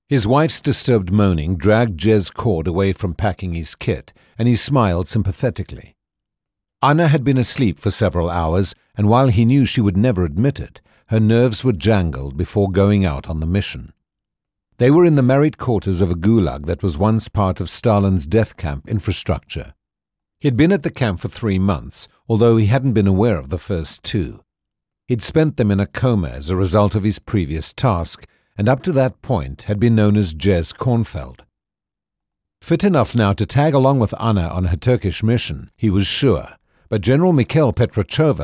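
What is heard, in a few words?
British English audiobook, male; engelsk-uk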